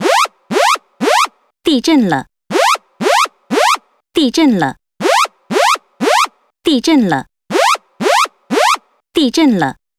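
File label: translated earthquake Chinese; iOS 26 Sounds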